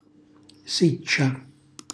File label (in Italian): "siccia", Calabrese; cucina calabrese